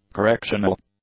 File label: "correctional", English; adjectives